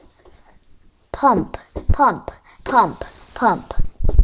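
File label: "pump", spoken word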